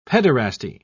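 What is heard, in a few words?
"pederasty", English, British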